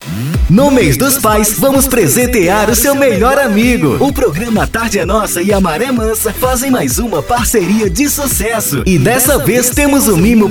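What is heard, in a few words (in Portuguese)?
VOZ IMPACTO GRAVE vinheta para promocao de radio fm am comunitaria vinhetas spot chamada; comercial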